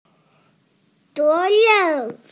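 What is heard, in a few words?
"responsibility", Mandarin Chinese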